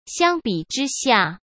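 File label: "相比之下", Mandarin Chinese; hsk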